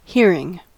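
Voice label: "hearing", US English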